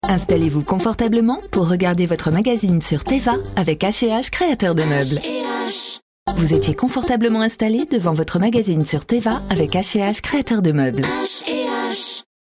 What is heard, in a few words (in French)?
dynamique souriante; H ET H